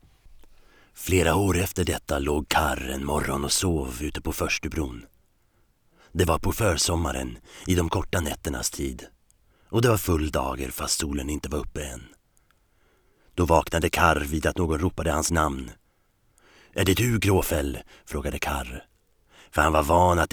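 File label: Swedish male Character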